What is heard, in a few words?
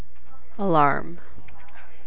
"alarm", USA